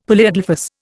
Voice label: "polyadelphous", Indian; Dictionary

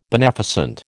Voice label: "beneficent", American

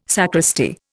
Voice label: "sacristy", Indian

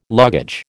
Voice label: "luggage", American

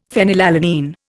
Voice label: "phenylalanine", Indian; Dictionary

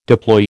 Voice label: "deploy", American

